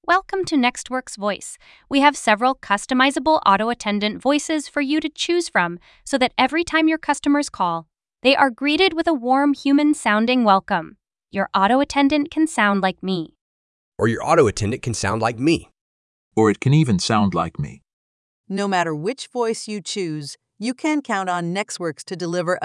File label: voice demo